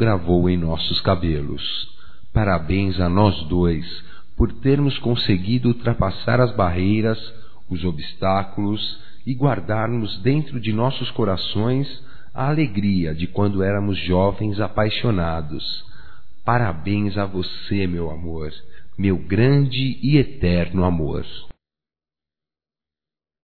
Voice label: ANIV DE CASAMENTO (M); Casamentos

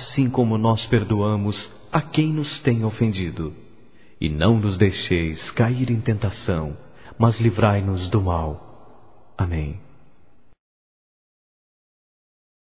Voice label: PAI NOSSO M; Telemensagens; Natal e Ano novo

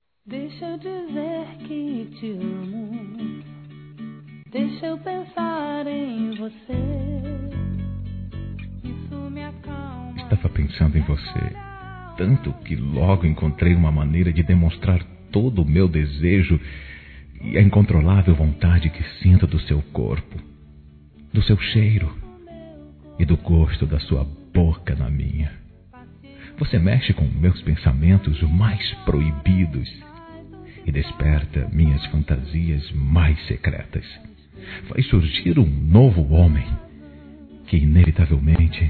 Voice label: ROMANT. PICANTE, Sensuais, masc; Telemensagens

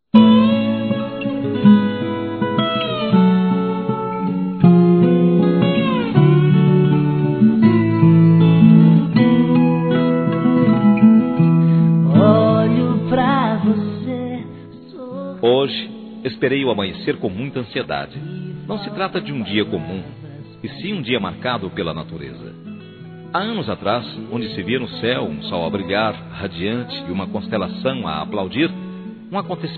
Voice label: FELIZ ANIVERSARIO M

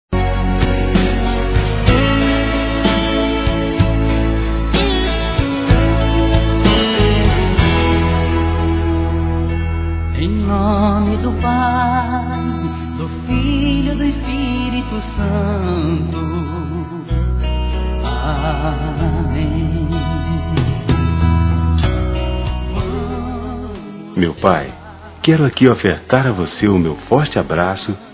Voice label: NATAL P PAI, masc; Telemensagens; Natal e Ano novo

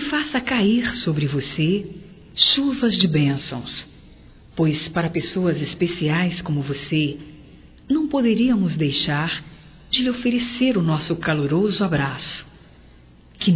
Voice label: Aniv Gospel, Telemensagens Aniversarios; chuvas de bênçãos; Telemensagens 2017

